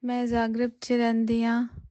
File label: punjabi; POP UP Zagreb